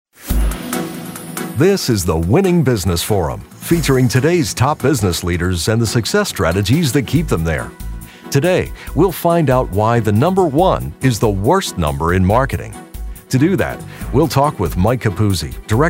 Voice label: english american podcasts